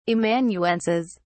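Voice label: "amanuenses", English, British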